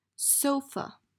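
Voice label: "Sofa", English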